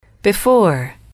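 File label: "before", english, female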